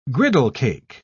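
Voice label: "griddlecake", British English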